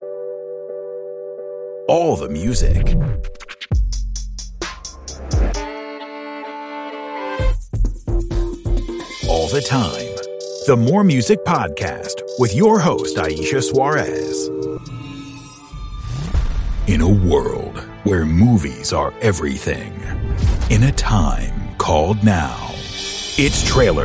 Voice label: english american podcasts